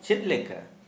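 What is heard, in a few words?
Santali, male, how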